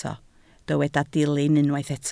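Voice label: "dilyn" Welsh, spoken word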